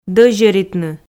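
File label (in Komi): "дӧзьӧритны", Komi, female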